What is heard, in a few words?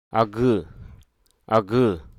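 Hruso Aka; child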